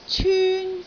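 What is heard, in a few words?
"cyun1", Cantonese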